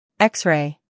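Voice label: "X-ray", American English; sounds-of-american-english; everyone-can-use-english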